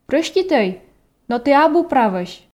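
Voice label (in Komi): прӧститӧй но ті абу правӧсь, Komi, female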